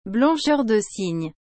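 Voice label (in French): "blancheur de cygne", French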